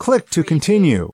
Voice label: US male click to continue; lynne publishing

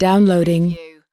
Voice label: "downloading", US female; lynne publishing

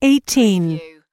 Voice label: US female phone prompt; lynne publishing

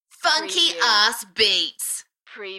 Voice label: UK female, Funky arse beats, Enthusiastic; beanstalk audio

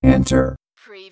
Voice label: Robot voice "enter"; lynne publishing

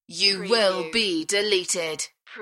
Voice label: UK female; beanstalk audio

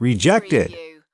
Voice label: "rejected", US male

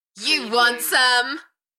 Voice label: UK female, You want some, Enthusiastic; beanstalk audio

